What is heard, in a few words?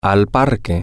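Spanish, to the park, male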